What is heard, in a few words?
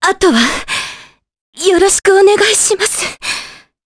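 Vox Dead, Japanese; Veronica; kingsraid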